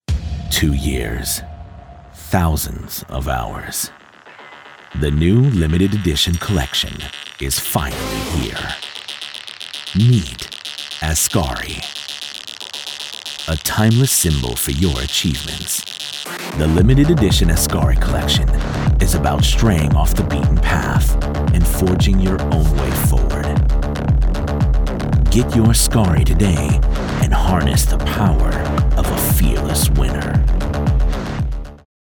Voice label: Dramatic Commercial Male Voice Over

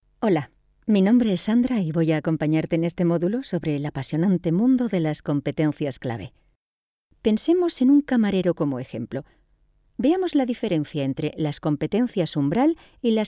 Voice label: FEMALE Spanish e-learning

